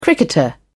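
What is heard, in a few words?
"cricketer", British English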